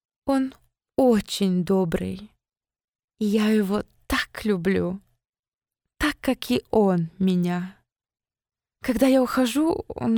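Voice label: Russian Russia female sales; russian voice over talent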